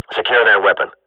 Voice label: radio bot under fire friendly, Counter-Strike swat; Global Offensive; player vo